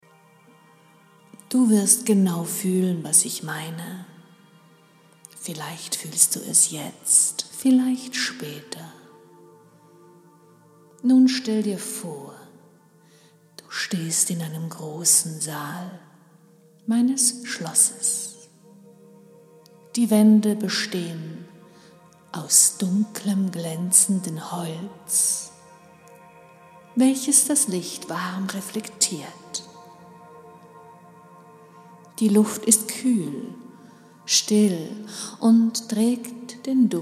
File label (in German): Induktion Der Kerzensaal der Trance; OHRgasmus; „Deine Gedanken flackern – im Takt meiner Stimme.“